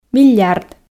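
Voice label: "миллиард", Komi, female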